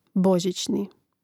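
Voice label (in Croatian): "bozicni", Hrvatski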